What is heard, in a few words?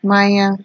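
"manya", Nigerian Pidgin, female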